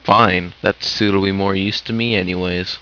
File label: pissed